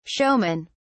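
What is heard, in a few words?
"showmen", British English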